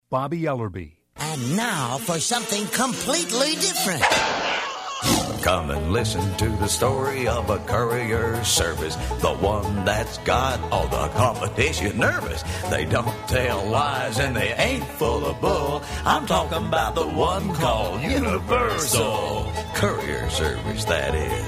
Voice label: M Character, voiceover, men

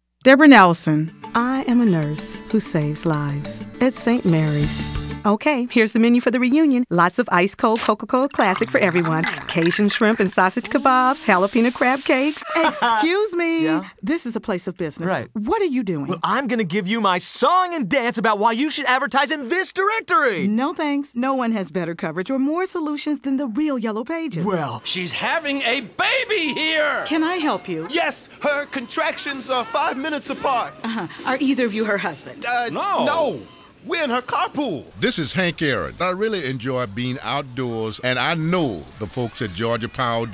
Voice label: F Commercial

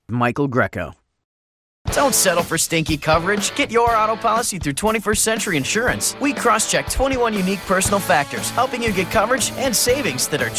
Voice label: M Commercial, voiceover mens

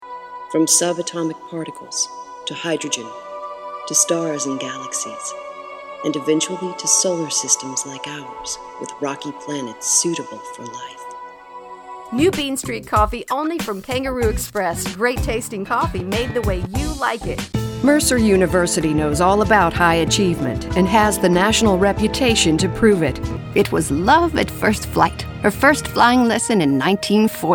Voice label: F Commercial

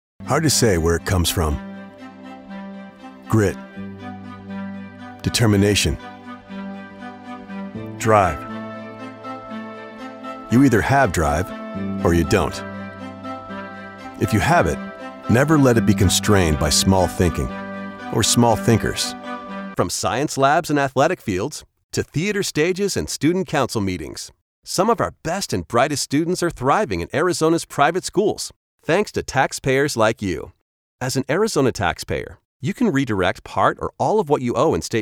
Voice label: voiceover narration, men

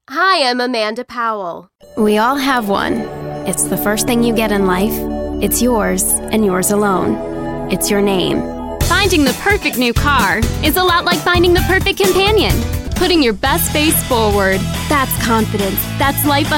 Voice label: F Commercial

